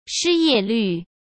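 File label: "失业率", Mandarin Chinese; chinese-flashcards; hsk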